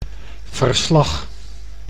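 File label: "verslag", Dutch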